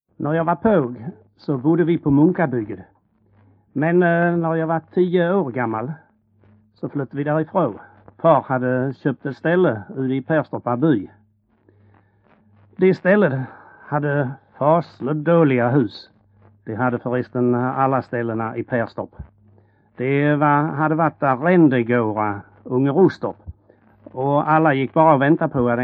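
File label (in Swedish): Ränneslöv Halland, dialekter; Folkminnen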